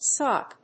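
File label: "swop", English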